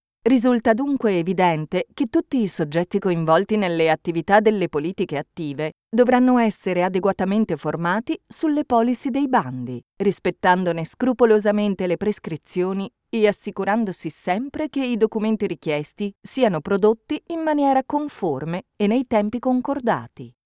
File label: italian training e-learning